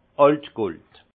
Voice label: "altgold", alsace; vin; vieil or

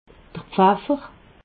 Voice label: "pfaffer", alsace

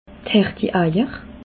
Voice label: "herti eier", alsace

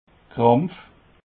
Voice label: "krampf", alsace

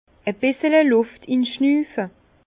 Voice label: "luft", Bas Rhin; vin; aspirer un filet d’air

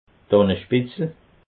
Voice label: "dannespitzel", alsace

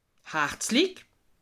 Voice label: "harzlig", alsace

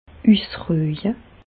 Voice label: "usruje", Bas Rhin; medecine; reposer

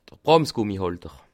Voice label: "bramsgummi", Alsace

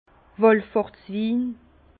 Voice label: "wallfahrtswin", Bas Rhin; vin; vin de pélerinage

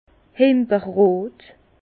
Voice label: "himberrot", Bas Rhin; framboise; vin

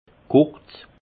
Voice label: "kurz", alsace; vin; court

